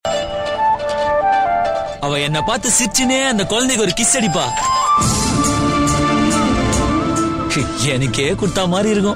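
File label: Dialogue Ringtone; Pollathavan; Tamil; 2009 Tamil Hit Ringtones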